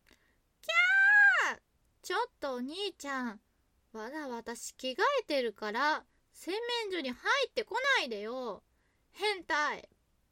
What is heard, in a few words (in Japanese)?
妹ぼいす; いもうとぼいすパック